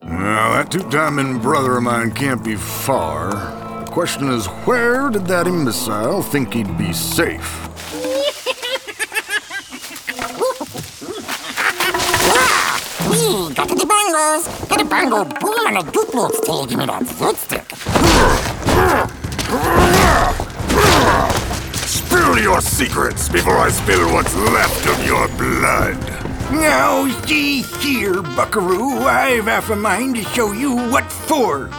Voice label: Animation Character Voice